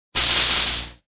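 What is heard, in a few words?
"grass", English; POKEMON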